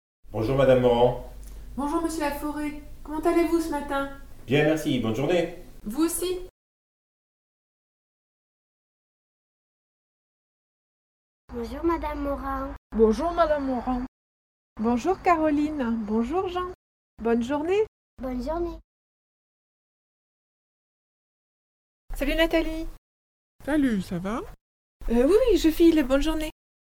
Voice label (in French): dialogue seul entier; MelangesCrapel